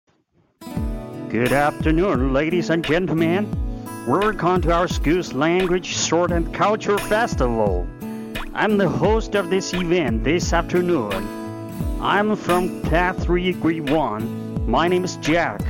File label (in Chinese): 英语娱乐类; schoolparty